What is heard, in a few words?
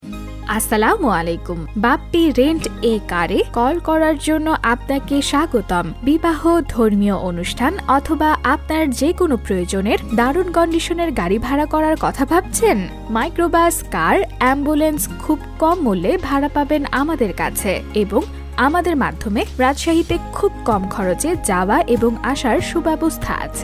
caller tune